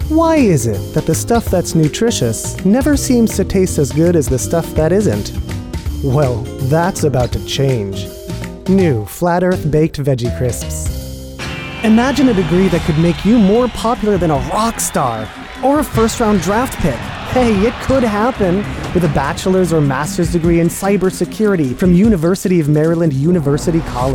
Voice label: commercial